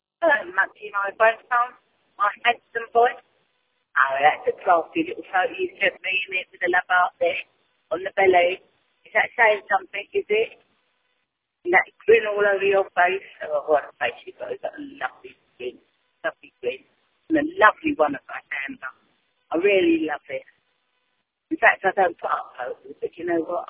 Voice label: voicemail